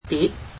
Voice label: "plate", cantonese